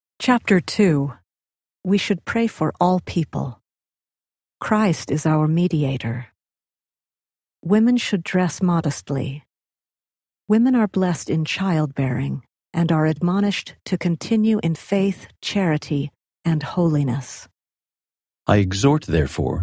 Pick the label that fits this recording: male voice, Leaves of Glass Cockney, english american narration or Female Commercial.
male voice